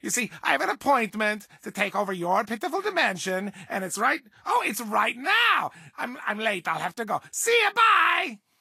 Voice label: English Voice

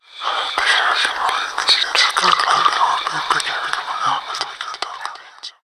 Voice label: Whispers; Prison Architect

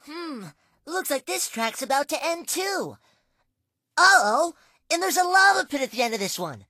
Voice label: English Voice

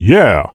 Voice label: Male "Yeah"; blastmorph pinball; xbox series xs

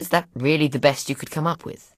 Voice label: English Voice

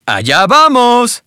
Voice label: "ok", Spanish - dialogue

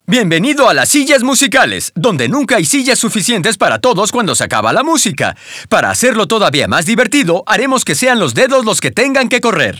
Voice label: Spanish - musical chairs welcome vo; dialogue; birthday party bash; wii

